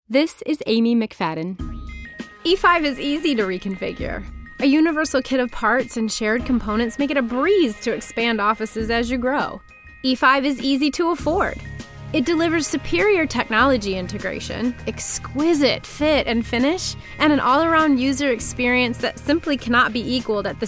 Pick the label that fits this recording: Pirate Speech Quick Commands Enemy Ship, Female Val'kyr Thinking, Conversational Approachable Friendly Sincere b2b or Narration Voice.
Narration Voice